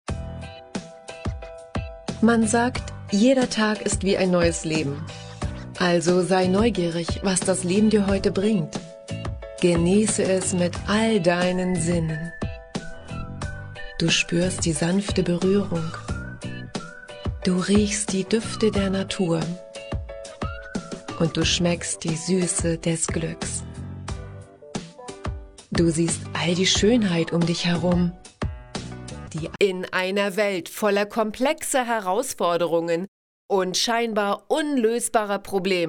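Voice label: German, female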